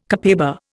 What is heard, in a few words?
"copaiba", Indian